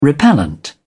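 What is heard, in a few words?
"repellent", British English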